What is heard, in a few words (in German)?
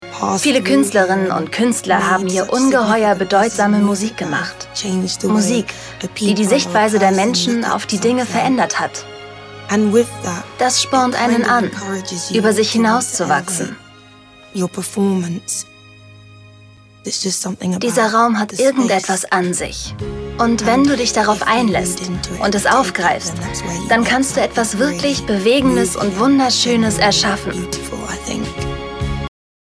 Voiceover Doku schwelgerisch emotional